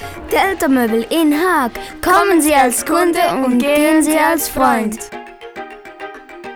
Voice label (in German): Moebel Werbung; Delta